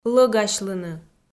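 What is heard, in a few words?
"лӧгасьлыны", Komi, female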